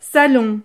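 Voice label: "salon", French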